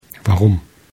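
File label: "warum", German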